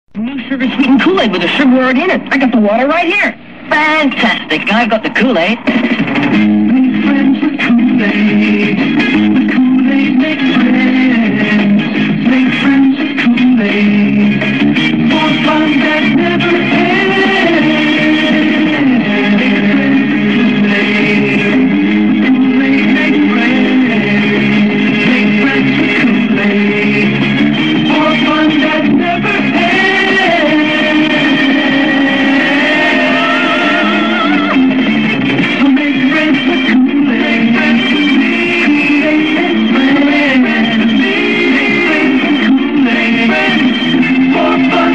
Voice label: Commercial; Kool-Aid; 1969